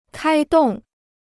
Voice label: "开动", Chinese